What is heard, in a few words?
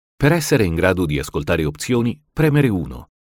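Italian, IVR; GoLocalise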